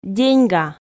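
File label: "деньга", Komi, female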